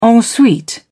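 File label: "en suite", British English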